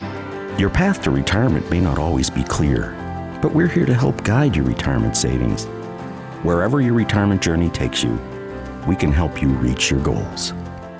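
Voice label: Retirement Goals, voice over demos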